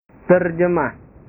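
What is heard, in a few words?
"terjemah", Indonesian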